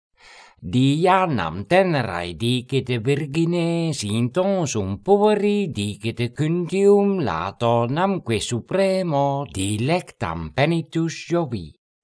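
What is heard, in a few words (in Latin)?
Horatii carmina quae voce canora pronuntiat; Dianam tenerae dicite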